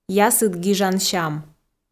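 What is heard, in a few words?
"ясыд гижан сям", Komi, female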